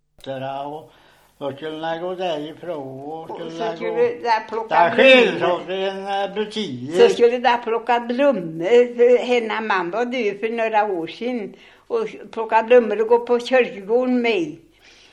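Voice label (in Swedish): Gunnarsjö Västergötland, dialekter; Svenska sagor; Folkminnen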